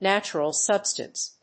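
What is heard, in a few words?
"natural substance", English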